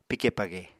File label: "pike page", Apatani